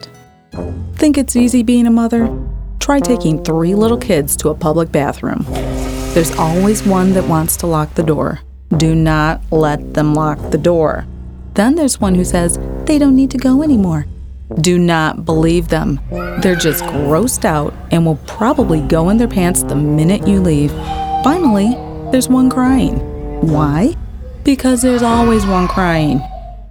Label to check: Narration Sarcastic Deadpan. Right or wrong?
Right